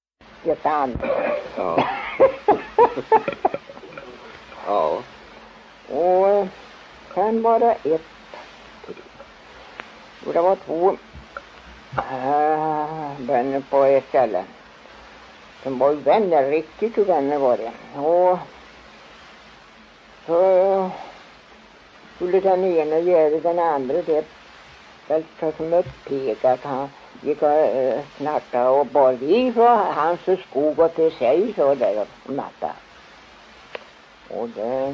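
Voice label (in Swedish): Sillerud Värmland, dialekter; Folkminnen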